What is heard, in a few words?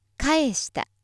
female